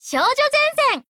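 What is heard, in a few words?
title call, Japanese; UTS15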